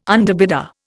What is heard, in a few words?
"underbidder", Indian